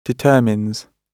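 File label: "determines", British English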